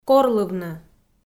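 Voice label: "корлывны", Komi, female; kpv; audio dict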